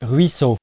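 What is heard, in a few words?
"ruisseau", French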